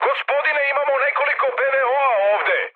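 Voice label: Serbian damaged aa mood high, speech; War Thunder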